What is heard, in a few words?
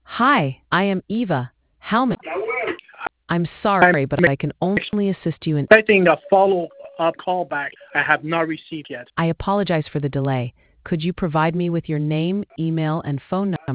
conversation